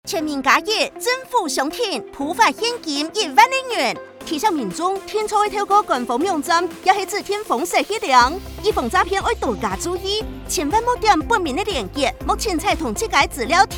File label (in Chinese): 廣播 客語版; 全民+1 政府相挺預防詐騙篇廣播-客語版